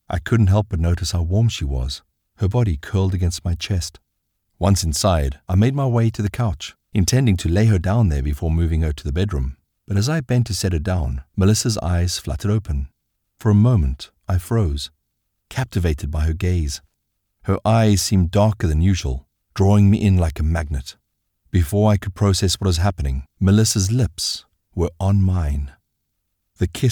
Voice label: english south african audiobooks